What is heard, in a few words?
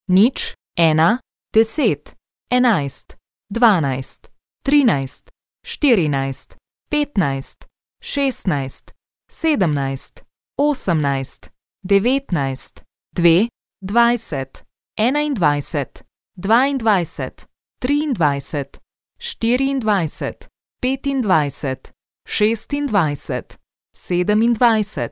Dealer Voice, sk, Roulette; real Dealer European Roulette Classic; sl